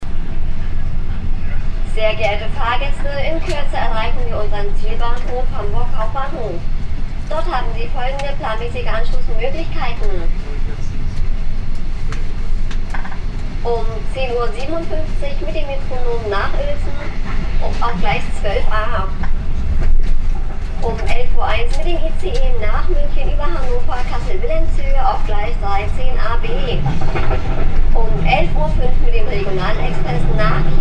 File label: announcement train